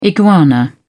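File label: "iguana", English, British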